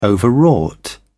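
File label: "overwrought", English, British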